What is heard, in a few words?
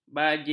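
"baling", Malay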